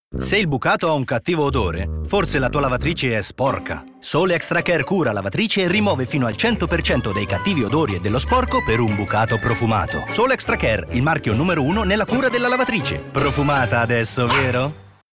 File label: male italian comercial